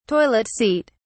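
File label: "toilet seat", British English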